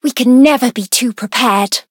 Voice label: Voice chant; sincere

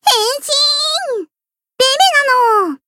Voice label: variable chant, jp; lele

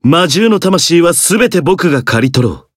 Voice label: variable chant jp; zeviro

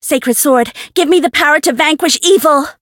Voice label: Voice variable chant; anaberu

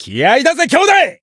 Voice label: limitbreak, jp; dennie

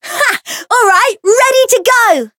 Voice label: variable chant; millecha

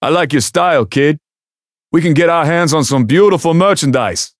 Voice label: Voice story

